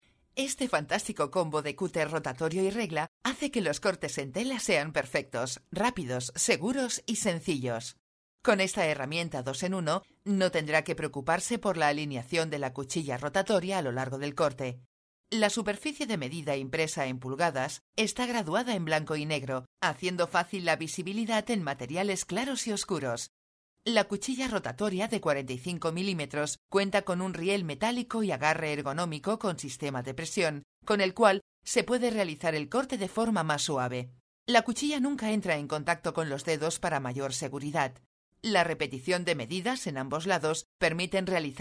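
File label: Spanish, female, elearning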